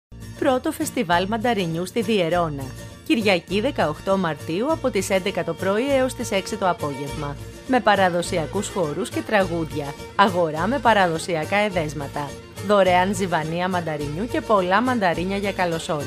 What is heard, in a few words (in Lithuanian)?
MOT Graiku balsas, moteris